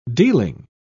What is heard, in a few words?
"dealing", pronounce